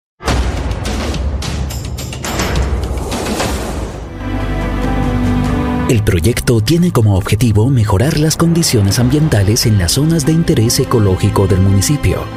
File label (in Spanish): Reel Locución Corpo